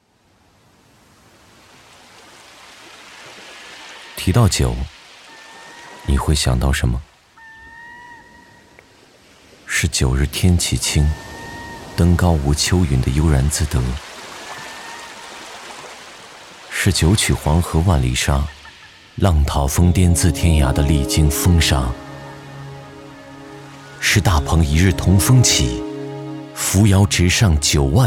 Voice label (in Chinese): 其他 旁白 旁白自然意蕴品质感, 男